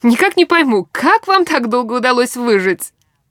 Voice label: idle, woman; Stalker Anomaly; characters voice human

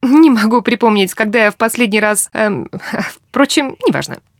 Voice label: reaction music, woman; Stalker Anomaly; characters voice human reactions

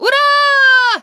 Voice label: attack, human woman fight; Stalker Anomaly

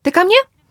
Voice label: back off, characters voice human woman threat; Stalker Anomaly

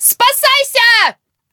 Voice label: enemy, characters voice human woman fight; Stalker Anomaly

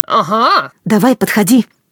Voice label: threat close, human woman fight threat; Stalker Anomaly

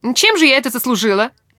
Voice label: no weapon, woman, talk; Stalker Anomaly; characters voice human use

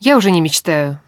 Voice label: idle, woman

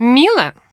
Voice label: reaction music, woman

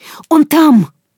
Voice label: search, woman; Stalker Anomaly

